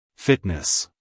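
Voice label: "fitness", American English, male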